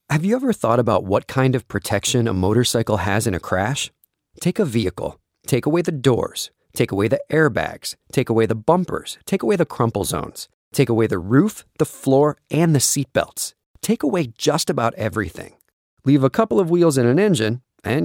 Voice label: radio